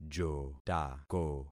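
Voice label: "jotako", concatenated syllables; Affixing experiment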